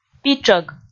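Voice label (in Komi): "питшӧг", Komi, female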